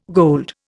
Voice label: "gold", Indian